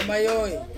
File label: "mayoi", to sit down, Ansus